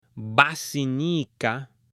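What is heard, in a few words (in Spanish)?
"BACINICA", hombre; MUEBLES; AppLenguaje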